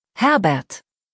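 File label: "Herbert", German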